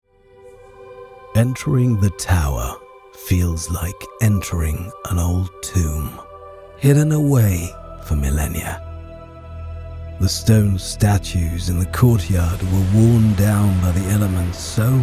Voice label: english british movie trailers

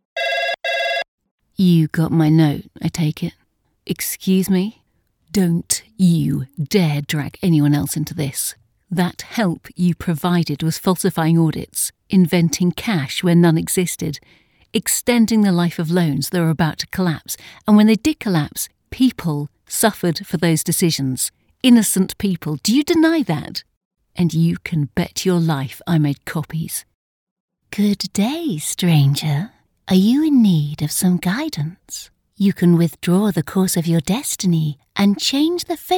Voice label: english british video games

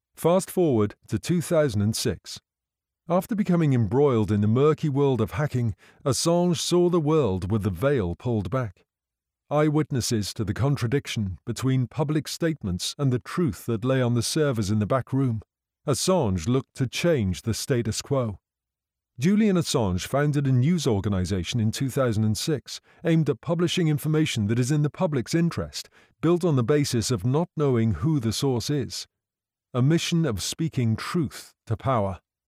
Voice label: english british documentaries

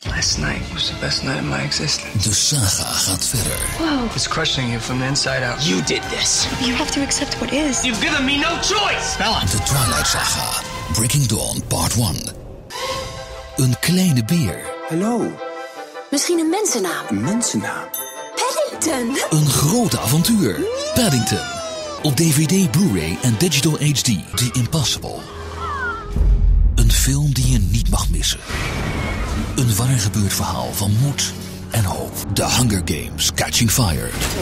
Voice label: dutch movie trailers

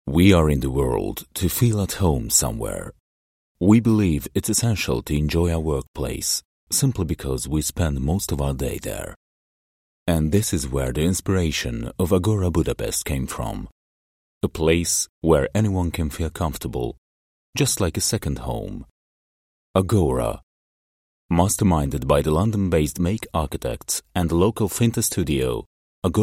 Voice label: english commercial

